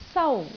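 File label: "sau1", Cantonese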